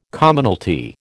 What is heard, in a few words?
"Commonalty", American